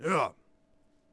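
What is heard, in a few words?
Settler Male Fort Vox; Civ4 warlords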